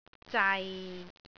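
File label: "zai6", Cantonese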